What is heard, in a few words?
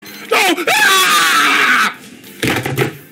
ahhh; ltg